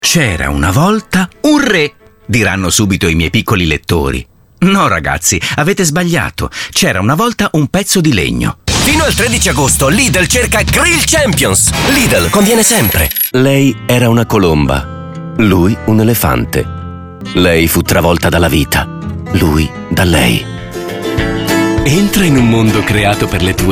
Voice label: mix spot

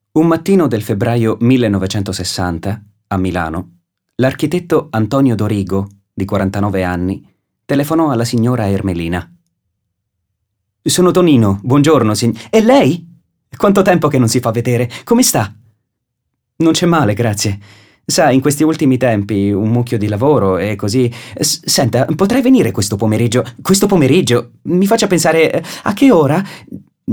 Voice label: audiolibro

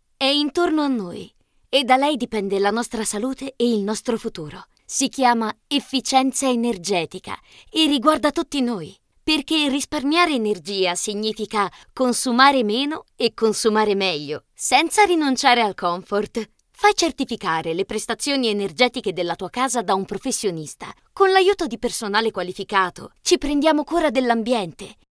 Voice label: spot istituzionale

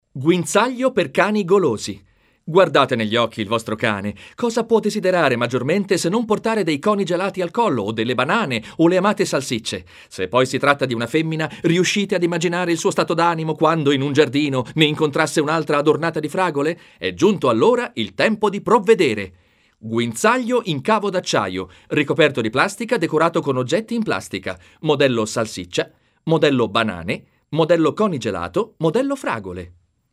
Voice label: radio comunicato

